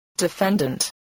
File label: "defendant", English